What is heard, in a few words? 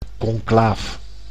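"conclaaf", Dutch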